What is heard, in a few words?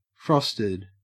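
"frosted", Australian English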